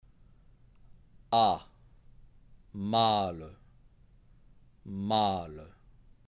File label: male